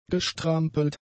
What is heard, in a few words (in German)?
"gestrampelt", german; adjectives